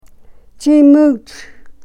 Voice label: "chiimuuch", Karuk